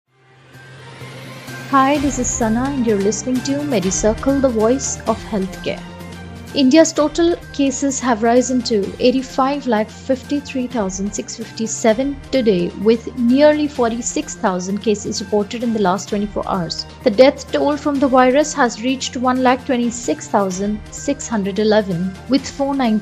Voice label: english news